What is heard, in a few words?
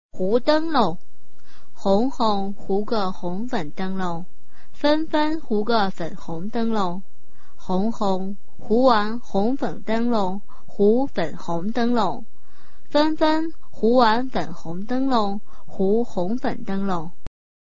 chinese tongue twister